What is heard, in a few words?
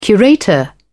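"curator", British English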